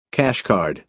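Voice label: "cash card", American English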